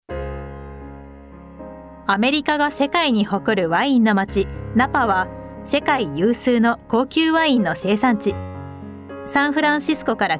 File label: nare banngumi